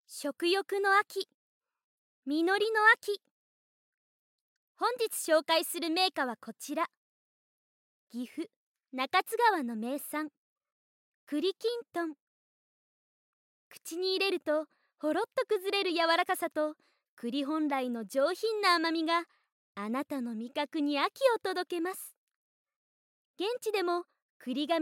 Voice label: Narration